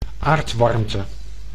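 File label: "aardwarmte", Dutch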